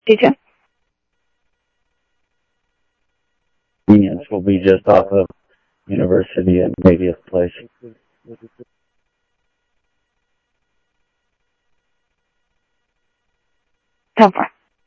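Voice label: Sheriff East County, scanner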